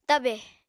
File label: "tabè", Apatani